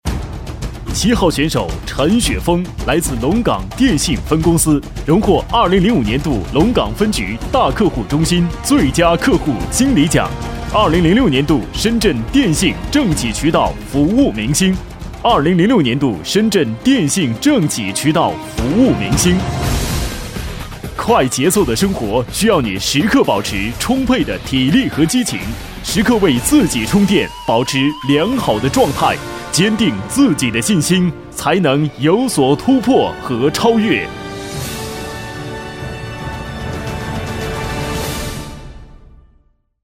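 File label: Chinese, male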